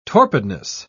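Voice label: "torpidness", English, British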